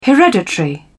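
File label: "hereditary", British English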